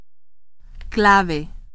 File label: "clave", Spanish